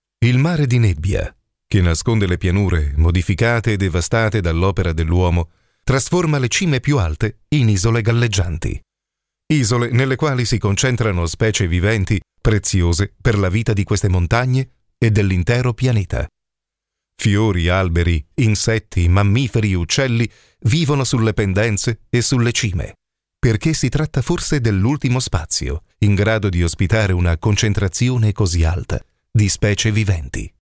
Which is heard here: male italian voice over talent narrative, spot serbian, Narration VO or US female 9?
male italian voice over talent narrative